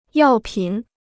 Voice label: "药品", Chinese

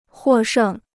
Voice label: "获胜", Chinese

